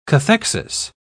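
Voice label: "cathexis", British English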